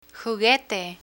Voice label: "juguete", Spanish